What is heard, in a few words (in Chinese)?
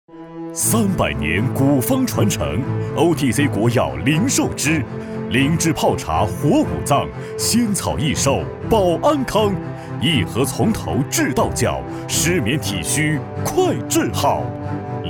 男 电视广告; 大力丸